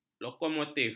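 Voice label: "lokomotif", Malay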